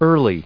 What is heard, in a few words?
"early", us